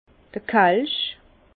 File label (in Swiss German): "kelsch", Bas Rhin; vin; coupe en calice